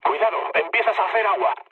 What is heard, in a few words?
damaged water, mood low, speech; War Thunder